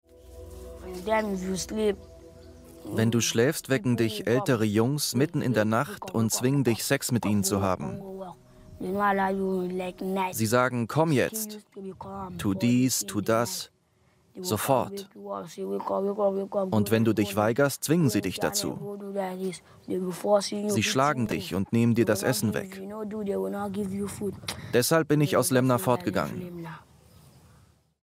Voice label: Reportage de Voiceover, Junge misshandelt; ARTE

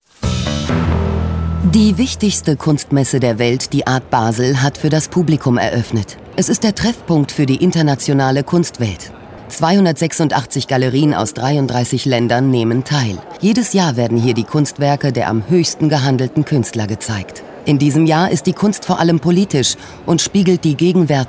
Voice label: Nachrichten; 3sat Kulturzeit

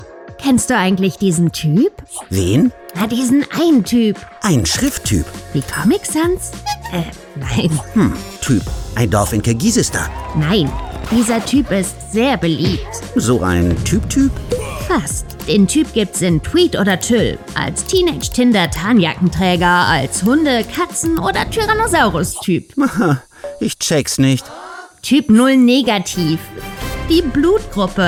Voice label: Imagefilm; DRK

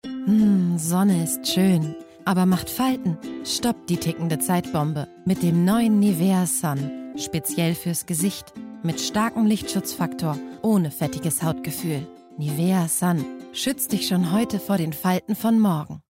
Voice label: Werbung sonnig

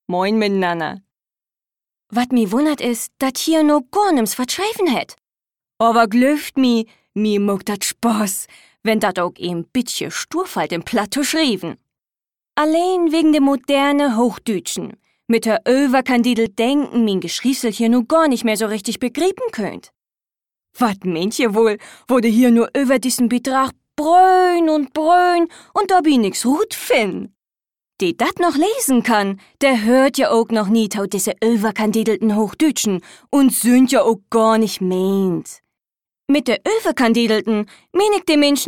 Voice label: Hörprobe Dialekt Plattdeutsch

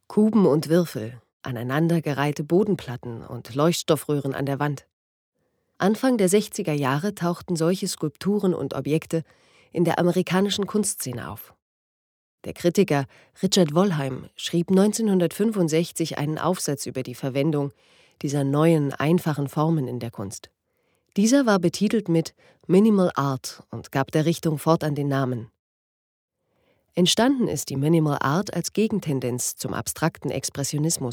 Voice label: audioguide; LOFT VOICES

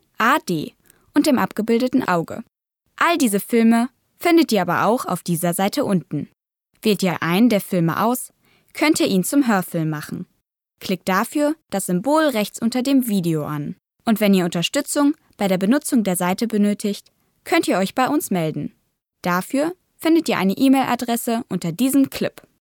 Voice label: Audiodeskription interaktiv; ProLim

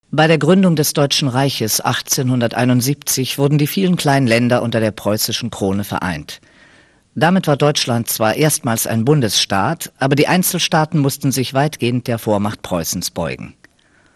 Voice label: kommentar

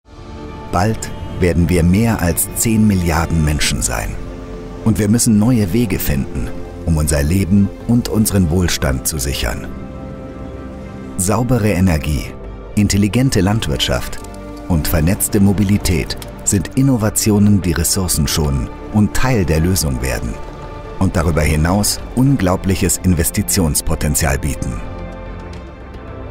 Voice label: Image Corporate Bank; Globalance Bank

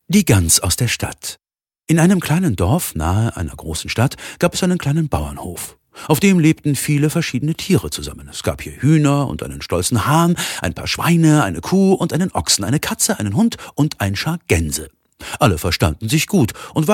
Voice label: erzaehlung

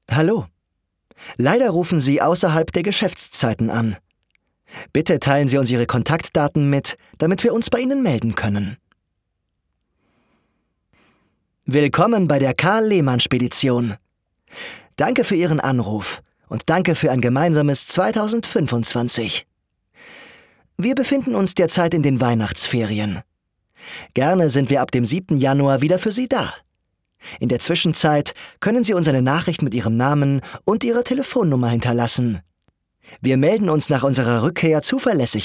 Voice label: telefonansagen

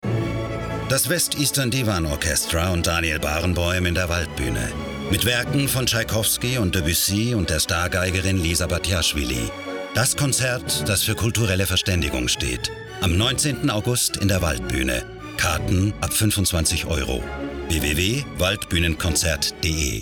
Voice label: Werbung Klassik; LOFT VOICES

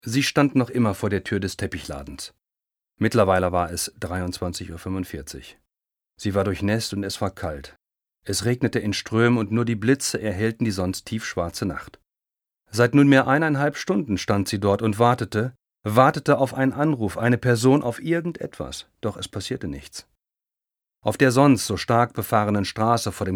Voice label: erzählung

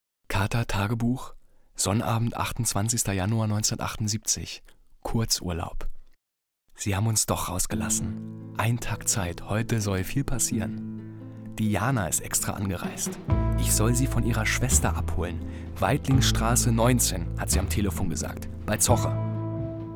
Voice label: Monolog; Einfach so

